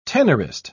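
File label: "tenorist", British English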